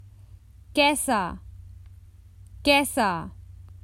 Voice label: Common Hindi Phrases for travel How

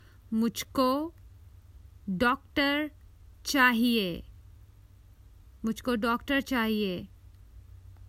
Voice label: Common Hindi Phrases for travel I need a doctor

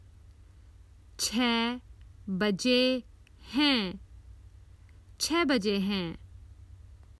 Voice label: Common Hindi Phrases for travel Its 6 o'clock

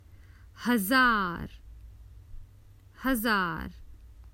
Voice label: Common Hindi Phrases for travel A thousand

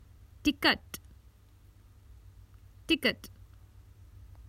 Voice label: Common Hindi Phrases for travel a ticket

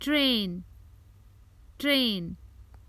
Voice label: Common Hindi Phrases for travel The train

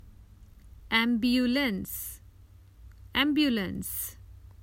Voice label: Common Hindi Phrases for travel An ambulance

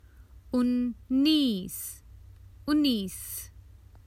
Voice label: Common Hindi Phrases for travel Nineteen

